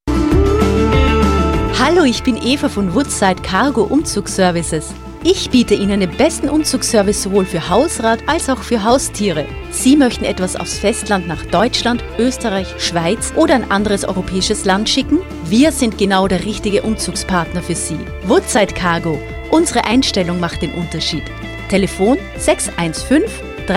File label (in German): Spot; Woodside Cargo